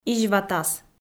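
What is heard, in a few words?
"изьватас", Komi-Zyrian, female; audio dict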